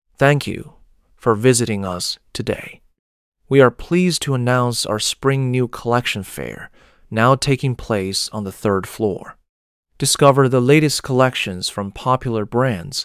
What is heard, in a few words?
Gentle voiced Man Warm Breathy Soothing